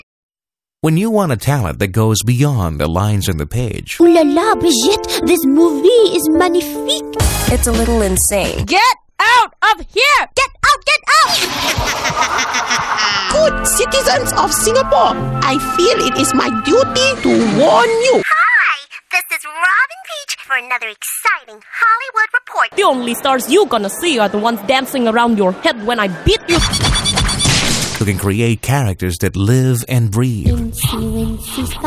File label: Asian English Voice